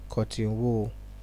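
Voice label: "cotton wool", Nigerian Pidgin, male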